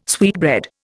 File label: "sweetbread", Indian